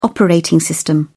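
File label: "operating system", British English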